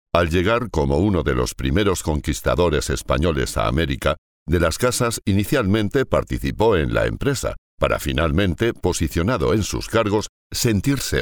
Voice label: locutor spanish voiceover